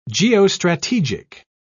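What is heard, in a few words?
"geostrategic", British English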